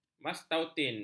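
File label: "mastautin", Malay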